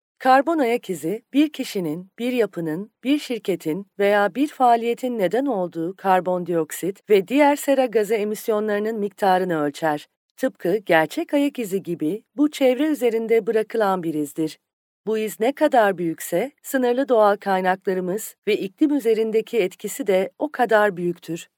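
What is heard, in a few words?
Turkish, female, informative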